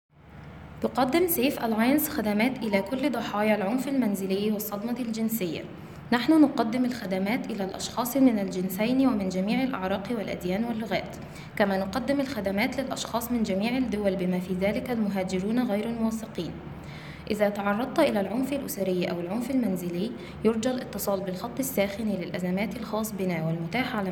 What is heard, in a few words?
arabic